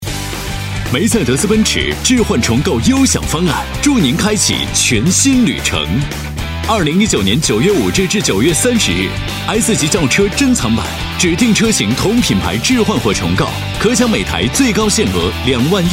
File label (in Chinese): 电台广告, 稳重; 奔驰